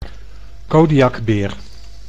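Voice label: "Kodiakbeer", Dutch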